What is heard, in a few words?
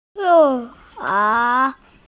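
"si kao" Chinese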